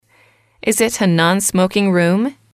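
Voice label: is it a non smoking room, English, female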